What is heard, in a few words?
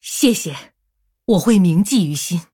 Female Human Thanks, zh